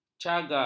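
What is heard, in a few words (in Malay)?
"cagar", Malay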